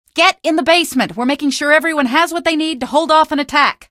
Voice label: citizen greet, female; hl2; coast; odessa; Source engine